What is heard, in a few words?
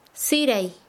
Chanka, quechua; cocer